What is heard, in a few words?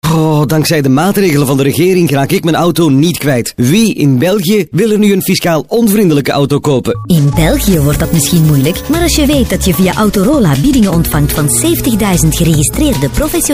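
flemish male commercial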